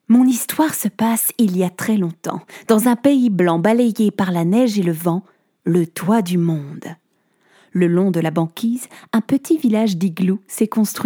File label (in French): conte, voix off